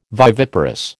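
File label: "viviparous", American English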